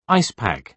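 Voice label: "ice pack", British English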